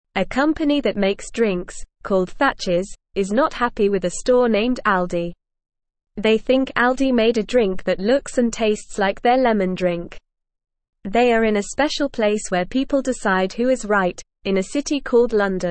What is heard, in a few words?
English Newsroom Beginner NORMAL Reading; Thatchers vs Aldi Who Made the Lemon Drink